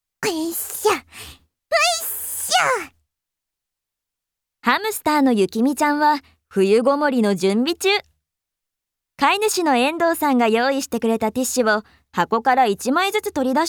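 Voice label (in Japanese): ナレ, ボイスサンプル; アニメ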